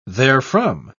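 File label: "therefrom", British English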